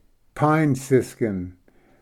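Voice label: "pine siskin", English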